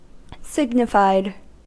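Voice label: "signified", American English; pronunciations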